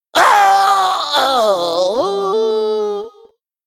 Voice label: Male Mech Death